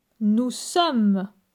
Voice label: "nous sommes", francais

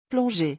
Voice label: "plonger", francais; vocabulaire; le lexique des vacances

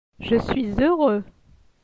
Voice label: "je suis heureux", francais

